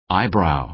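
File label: "eyebrow", American English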